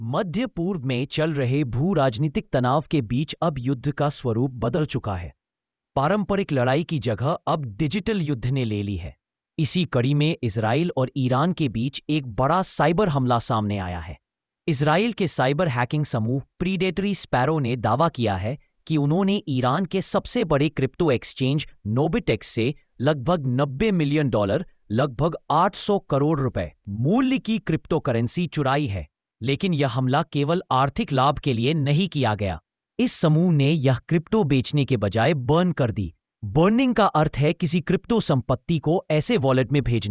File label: verse professional